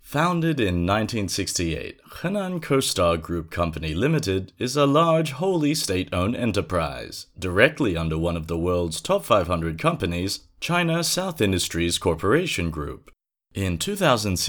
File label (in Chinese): 外籍英语男声,公司介绍,自然叙述; 河南某公司介绍